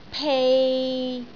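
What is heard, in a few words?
"pei3", Cantonese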